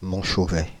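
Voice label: "Montchauvet", French, Paris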